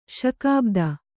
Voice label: "ಶಕಾಬ್ದ", Kannada